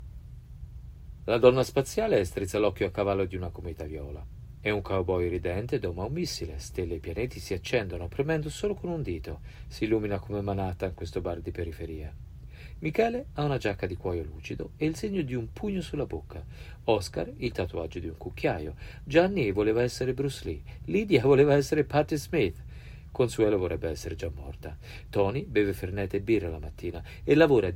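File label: Italian, general; GoLocalise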